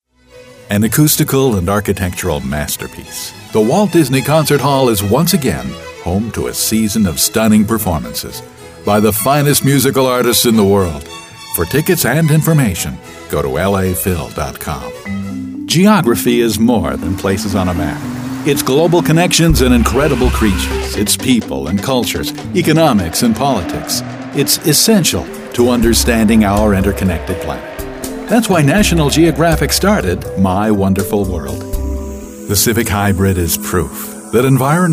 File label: Cultivated Commercials